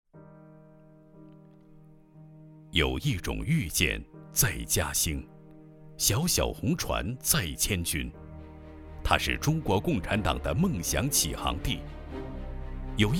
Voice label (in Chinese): 男 专题, 男; 艺术中心建设方案